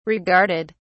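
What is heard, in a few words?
"regarded", British English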